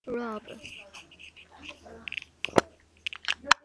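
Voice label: "rub", spoken word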